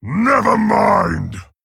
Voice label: male orc concede; Garrosh